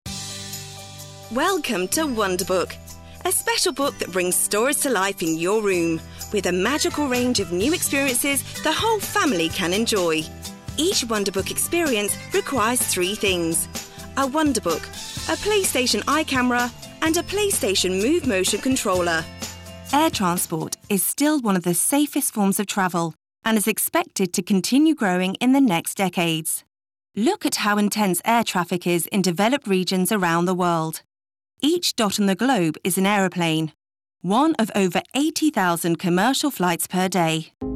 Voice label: corporate